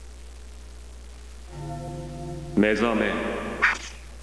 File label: narrator